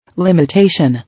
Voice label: "Limitation", English

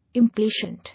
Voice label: "Impatient", English

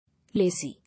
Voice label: "Lazy", English

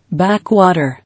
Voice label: "Backwater", English

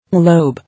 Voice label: "Globe", English

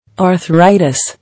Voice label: "Arthritis", English

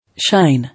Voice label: "Shine", English

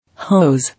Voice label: "Hose", English

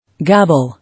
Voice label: "Gabble", English

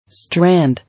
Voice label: "Strand", English

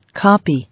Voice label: "Copy", English

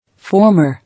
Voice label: "Former", English

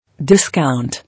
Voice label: "Discount", English

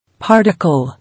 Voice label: "Particle", English

